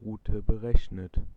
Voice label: Route Calculated, German male